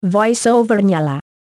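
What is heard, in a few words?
Indonesian VoiceOver On; EFI Audio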